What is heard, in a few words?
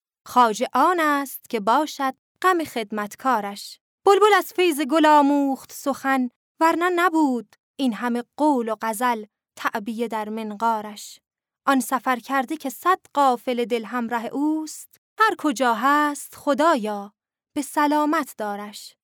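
Farsi, female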